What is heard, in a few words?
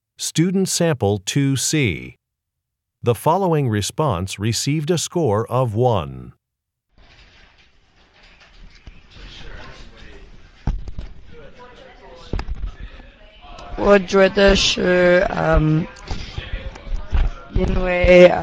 chinese conversation